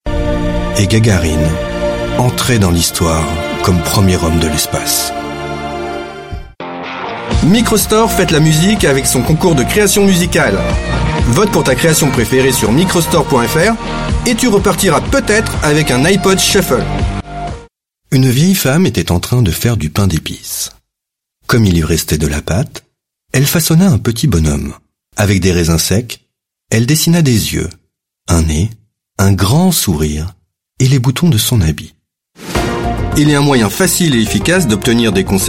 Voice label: French VO